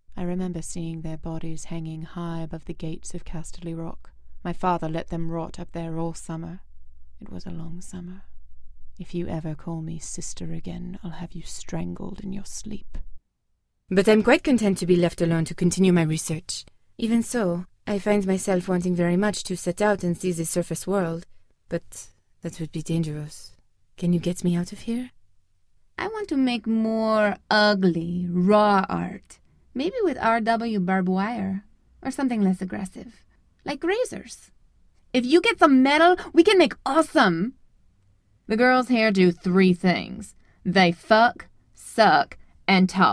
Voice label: Character